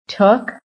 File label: "TOOK", american